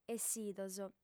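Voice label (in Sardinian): "essidos", female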